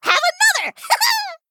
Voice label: Female Gnome Attack